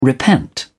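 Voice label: "repent", British English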